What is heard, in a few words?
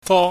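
"fo", Cantonese, high falling tone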